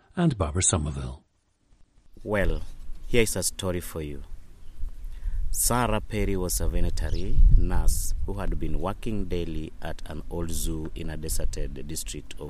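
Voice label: kenya, International Dialects of English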